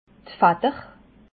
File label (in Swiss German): "fader", Alsace